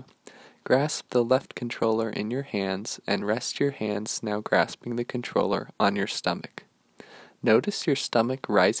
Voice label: breath exercise meditation; meditation-scripts